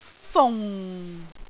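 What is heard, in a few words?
"fung4", Cantonese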